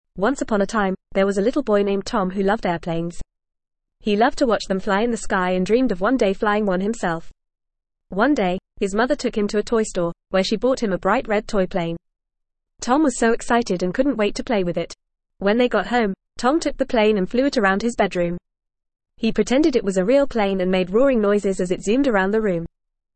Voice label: ESL Short Stories for Kids FAST reading; The Magic Toy Plane